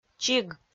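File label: "чиг", Komi-Zyrian, female; kpv audio dict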